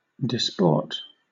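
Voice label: "disport", British English; pronunciations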